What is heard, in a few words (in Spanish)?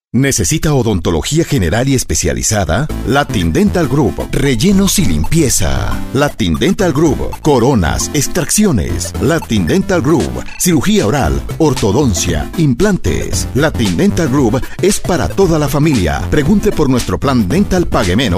Spot; Latin Dental Group